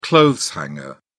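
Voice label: "clothes hanger", British English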